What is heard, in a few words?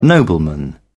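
"nobleman", British English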